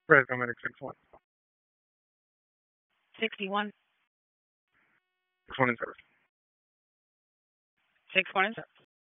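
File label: EMS Dispatch, p25; wake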